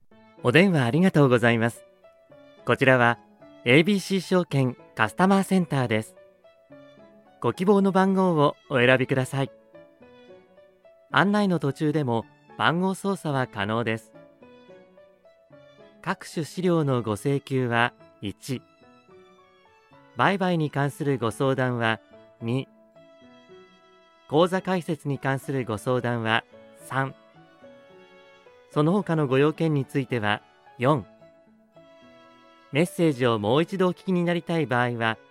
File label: japanese ivr